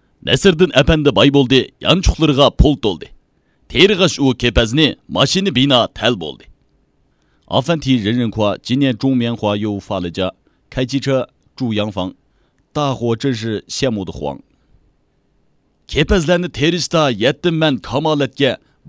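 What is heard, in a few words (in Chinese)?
维吾尔语男_外语_小语种_广告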